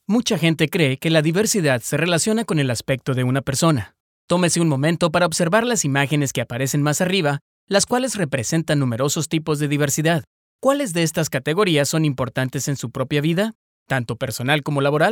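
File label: e-Learning, Spanish voice-over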